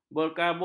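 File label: "berkabus", Malay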